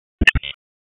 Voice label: Raleigh Police North District Tac, p25; wake